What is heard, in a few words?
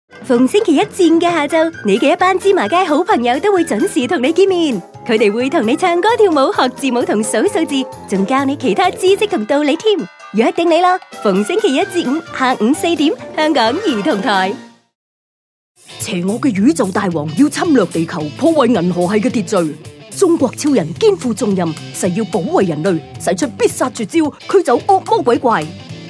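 Cantonese, TV commercial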